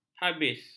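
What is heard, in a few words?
"habis", Malay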